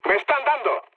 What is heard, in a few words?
aircraft damaged, mood med, speech; War Thunder